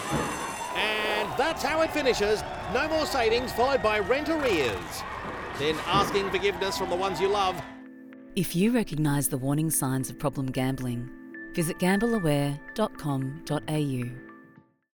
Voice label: gamble aware radio; racing, gaming and liquor; gamble aware 2023